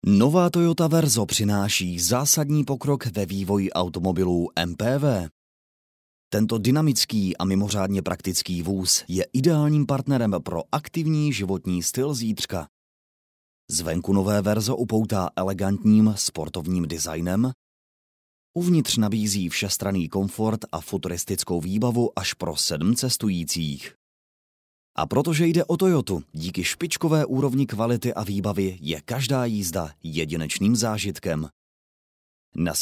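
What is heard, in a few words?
male Czech IVR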